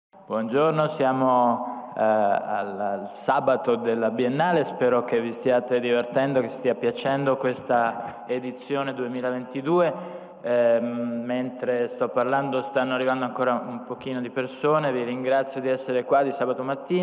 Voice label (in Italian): voiceover; biennaletecnologia2022; BT22; Macchine Di Dio